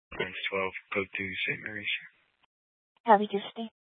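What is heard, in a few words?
Fire Dispatch, Scanner audio recording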